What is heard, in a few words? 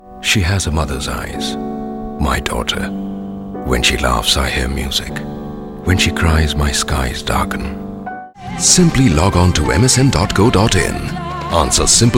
eng hindi; bajaj